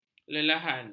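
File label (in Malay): "lelehan", Malay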